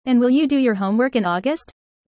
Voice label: fast; four seasons